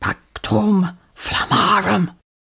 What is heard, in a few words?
pactum flammarum whisper, incantations older female fire spells; psfx